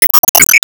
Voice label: Emo Greet

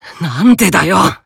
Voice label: Emo Damn

